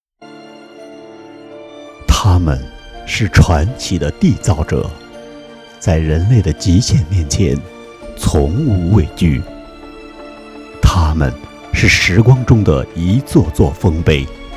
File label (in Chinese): 奥林匹克广告片; CCTV5